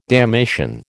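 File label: "damnation", American English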